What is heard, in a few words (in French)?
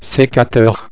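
"secateur", French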